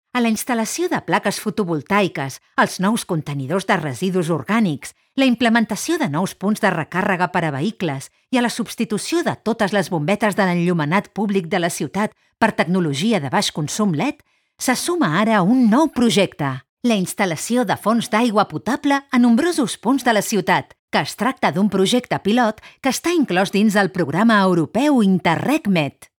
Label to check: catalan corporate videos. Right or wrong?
Right